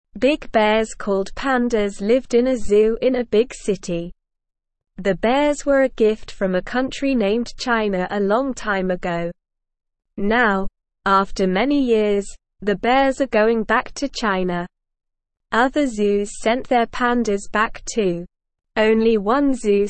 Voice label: Beginner SLOW Reading, English; English Newsroom; Pandas Going Back to China from the Zoo

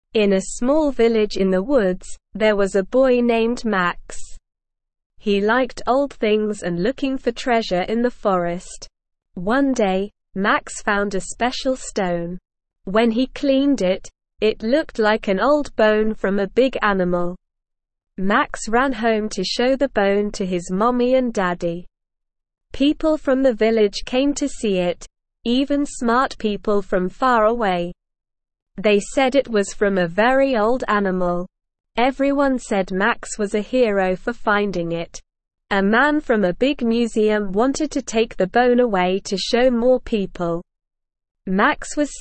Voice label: ESL Short Stories for Kids Beginner SLOW Reading; The Fantastic Fossil

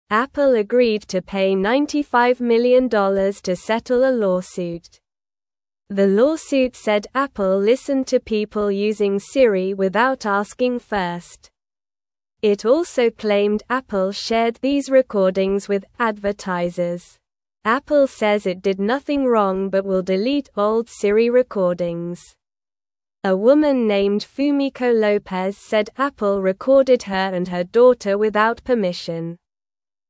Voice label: English Lower Intermediate SLOW Reading; English Newsroom; Apple Pays for Listening to Siri Without Asking

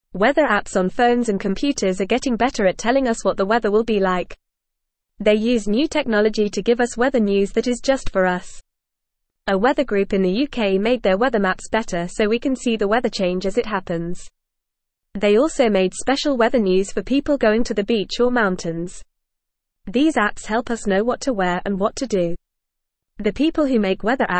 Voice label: English Newsroom Beginner FAST Reading; Weather Apps Helping Us Know the Weather

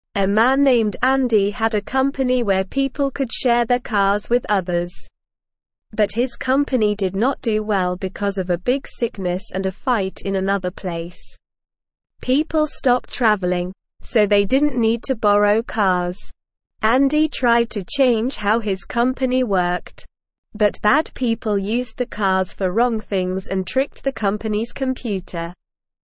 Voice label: English Newsroom Beginner SLOW Reading; Andys Car Sharing Company Faces Big Problems

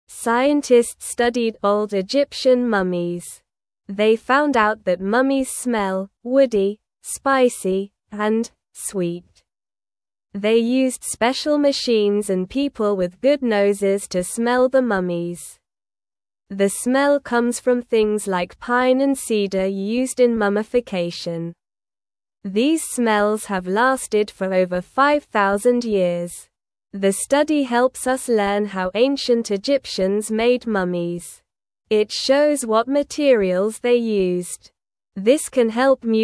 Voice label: English Beginner SLOW Reading; English Newsroom; Mummies Smell Like Trees and Spices from Egypt

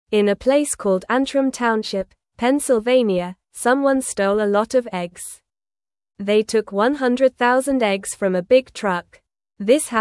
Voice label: English Beginner NORMAL Reading; English Newsroom; Egg Thief Steals 100000 Eggs in Pennsylvania

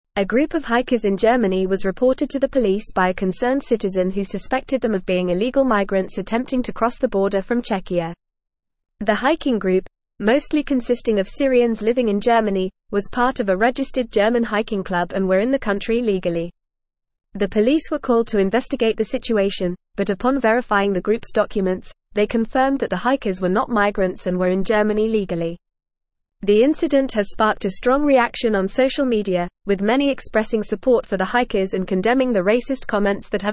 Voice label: English Newsroom Advanced FAST Reading; Hiking Group Reported as Illegal Migrants in Germany

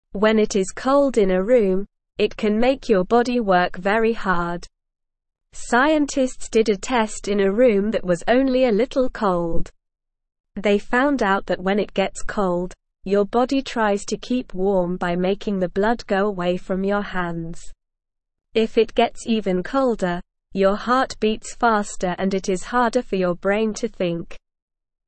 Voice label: English Beginner SLOW Reading; Why Being Cold is Hard for Your Body

